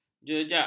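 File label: "jejak", Malay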